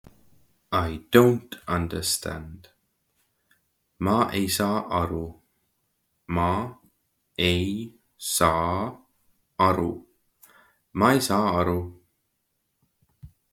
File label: I do not understand, Estonian; the talking estonian phrasebook